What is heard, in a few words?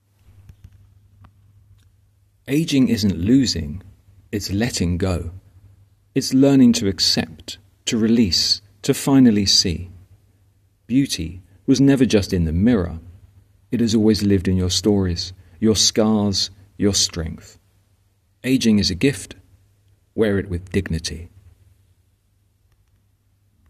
voiceover